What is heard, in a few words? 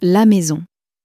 "maison", common french words